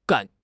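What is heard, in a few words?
"gan3", Mandarin Chinese; HSK4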